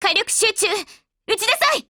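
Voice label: ATTACK, Japanese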